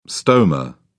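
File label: "stoma", English, British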